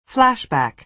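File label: "flashback", American English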